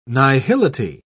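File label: "nihility", British English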